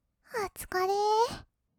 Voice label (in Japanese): "おつかれー", kawaii voice; ASMR; 色々なワンショット